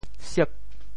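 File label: "siep8", Teochew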